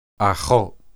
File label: "achoth", Hebrew